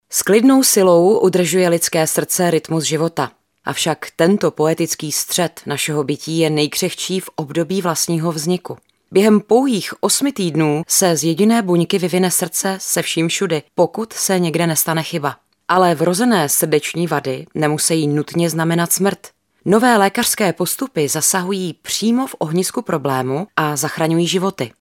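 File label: Female Czech documentary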